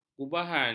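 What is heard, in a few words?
"gubahan", Malay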